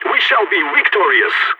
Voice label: on a roll brag, balkan

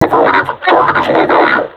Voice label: taunt, vo combine suppressor; Half Life Alyx

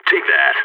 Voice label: enemy down, balkan

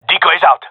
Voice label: throwing decoy, gendarmerie male; vo

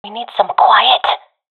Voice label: request quiet, professional fem; vo; Counter Strike Model Pack; CS:GO

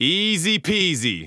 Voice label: taunt easy peasy; Counter Strike; CS:GO; taunts

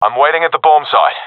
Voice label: guarding bombsite, professional epic; vo; Counter Strike Model Pack; CS:GO

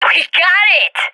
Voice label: cheer; Counter Strike Model Pack; CS:GO

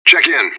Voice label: radio bot report; fbihrt

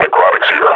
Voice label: announce enemy zombie, combine grunt; Half Life Model Pack

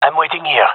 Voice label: waiting here, gendarmerie male; vo

